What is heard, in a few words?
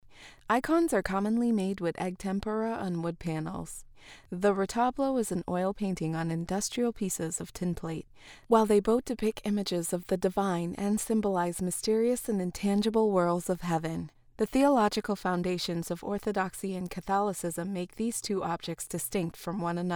Museum Tour